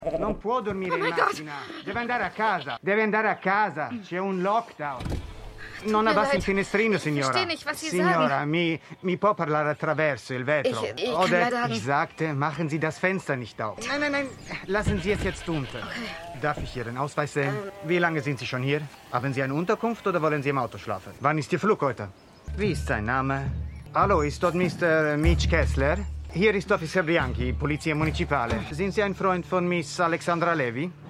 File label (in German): Italienischer Polizist; The Morning Show